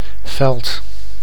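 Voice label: "veld", Dutch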